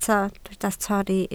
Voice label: "torri", Welsh